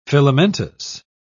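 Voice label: "filamentous", English, British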